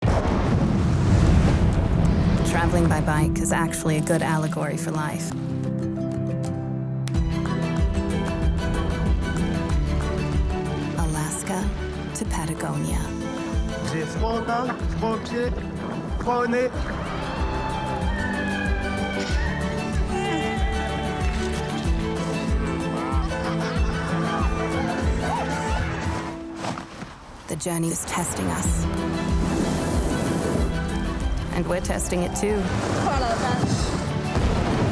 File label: english american documentaries